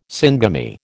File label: "syngamy", American